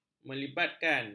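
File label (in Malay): "melibatkan", Malay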